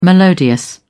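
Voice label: "melodious", British English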